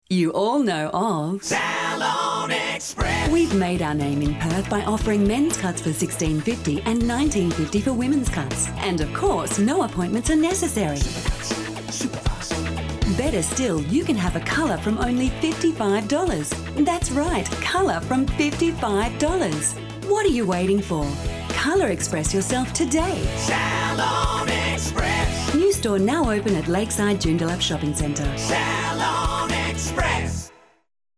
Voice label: Radio Ad; Salon Express